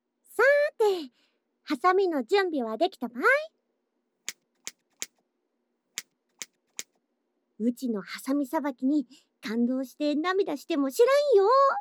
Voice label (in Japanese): 散髪しながら耳元で囁き雑談（両耳）; 美容院; 人懐っこい上京方言娘